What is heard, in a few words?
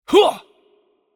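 Chinese attack heavy voice; bloom minigame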